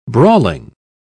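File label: "brawling", British English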